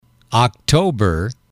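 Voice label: "october", English, male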